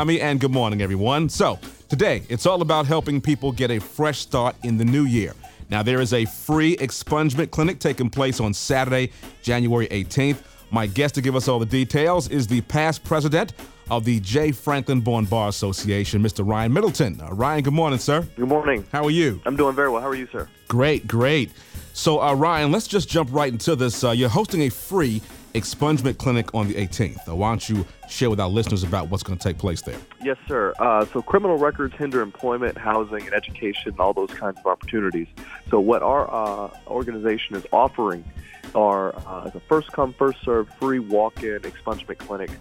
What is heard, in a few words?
Air Check